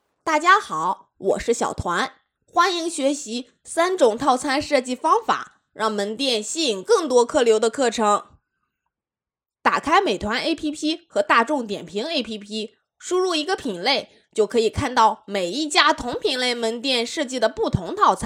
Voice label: 正太音

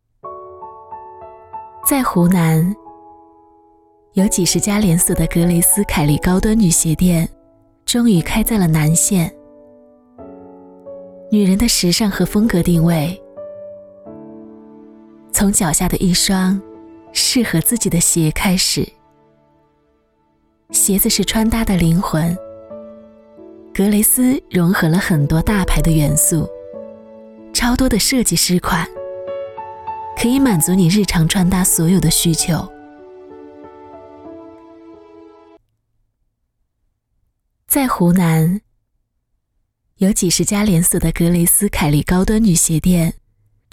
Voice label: 专题年轻舒缓

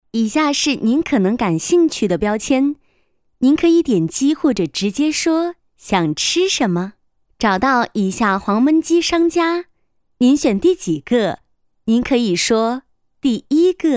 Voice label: 导航 中英文 亲切 有互动感

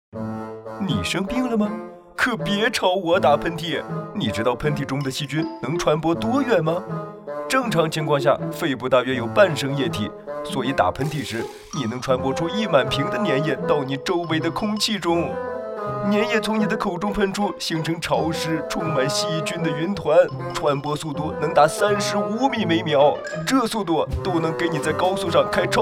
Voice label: 夸张喷嚏传播诙谐搞笑搞怪管弦; 飞碟

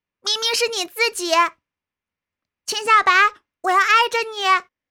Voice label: 女童

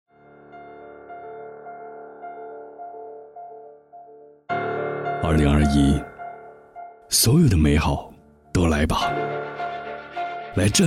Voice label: 旁白个性试音

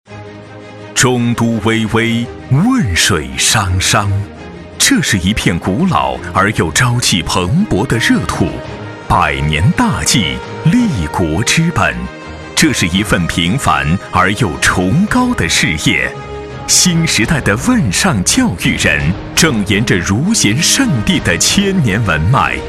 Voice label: 大气; 汶上教育

